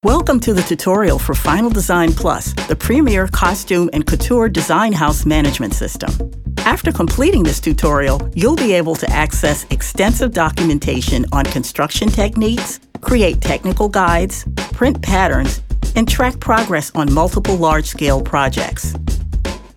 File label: elearning